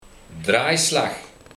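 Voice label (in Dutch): draaislag, Dutch dialect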